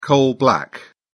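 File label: "coal black", English, British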